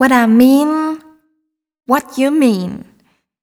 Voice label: fem spo, Vocals

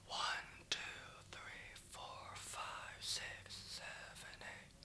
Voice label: Counting quiet

